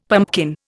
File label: "pumpkin", Indian; Dictionary